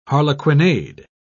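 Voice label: "harlequinade", British English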